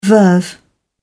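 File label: "verve", British English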